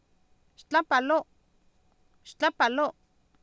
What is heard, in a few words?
xtlApalO, Oapan minimal pairs female; Oapan minimal pairs 2000